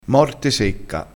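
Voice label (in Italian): "morte secca", dialettale crespinese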